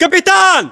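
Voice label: rank captain, battlechatter, Russian; localized english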